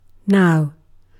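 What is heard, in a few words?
"now", British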